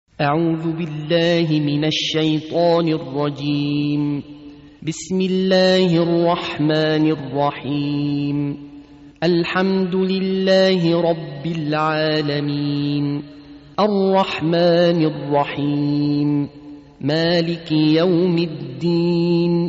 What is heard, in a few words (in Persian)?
ترتیل قرآن کریم سوره حمد; احمد طرابلسی